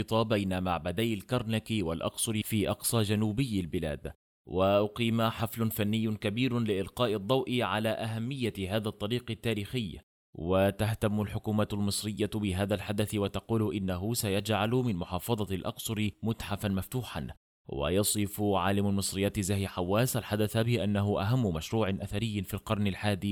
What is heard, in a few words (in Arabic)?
نشرة قصيرة